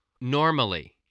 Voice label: "normally", voice, spelling